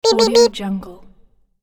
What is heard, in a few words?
cute voice beep beep beep